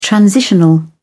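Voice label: "transitional", British English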